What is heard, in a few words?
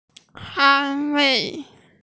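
"ān wèi", Mandarin Chinese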